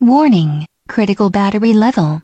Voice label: Critical Battery, Voice, DE